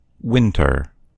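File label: "winter", American English; gcompris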